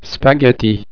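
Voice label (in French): "spaghettis", French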